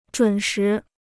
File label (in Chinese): "准时", Chinese